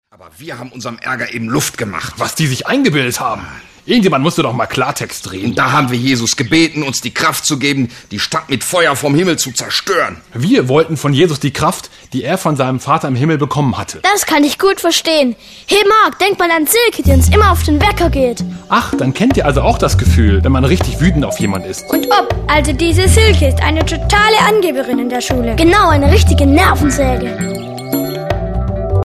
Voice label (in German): sprechszene